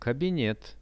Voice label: "kabinet", Russian; кабинет; dom; RUSSIAN VISUAL DICTIONARY

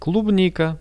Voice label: klubnika, Russian; клубника

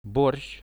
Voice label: "borsh" Russian

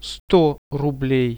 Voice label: "100 rublei", Russian; сто рублей

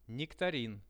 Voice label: "nektarin", Russian; нектарин

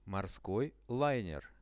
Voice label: "morskoj lainer", Russian; морской лайнер; transport; RUSSIAN VISUAL DICTIONARY

